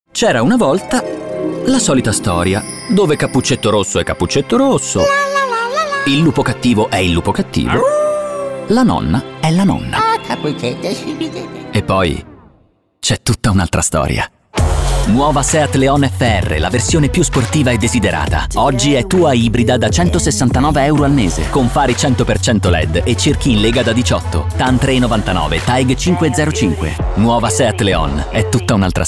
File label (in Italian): interpretato istituzionale; seat